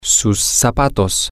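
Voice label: shoes, Spanish, male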